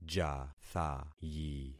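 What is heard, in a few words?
"jathayi", concatenated syllables; Affixing experiment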